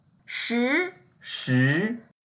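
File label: "shi2", Mandarin Chinese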